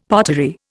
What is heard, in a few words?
"pottery", Indian; Dictionary